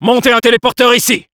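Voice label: Spy need teleporter, french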